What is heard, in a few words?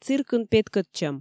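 "циркын петкӧдчӧм" Komi, female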